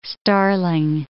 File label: "starling", English